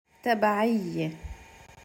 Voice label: "taba3iyye" arabic pronunciation